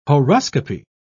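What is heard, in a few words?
"horoscopy", British English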